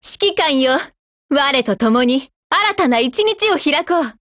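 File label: LOADING, Japanese; KSVKMod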